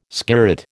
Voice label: "skirret", American